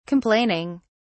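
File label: "complaining", British English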